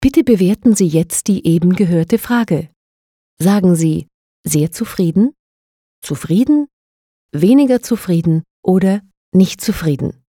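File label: Austrian telephone; sprecher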